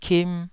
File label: "kim2", taiwanese syllables